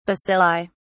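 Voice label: "bacilli", British English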